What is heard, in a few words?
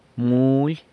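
"muly", money, San Lucas Quiaviní Zapotec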